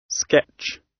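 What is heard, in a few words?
"sketch", British English; Time, history; Thời gian, lịch sử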